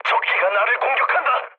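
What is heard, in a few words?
Korean aircraft damaged mood low; War Thunder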